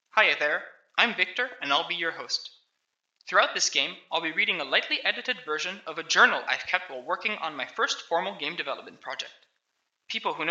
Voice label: voice, narration